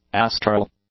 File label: "astral", English; adjectives